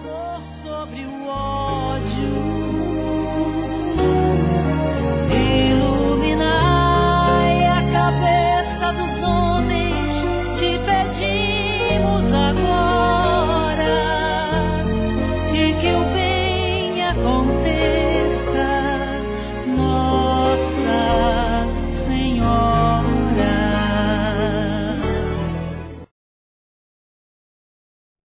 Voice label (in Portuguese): Aniv. Avô Feminino, Telemensagens; Aniversário Infantil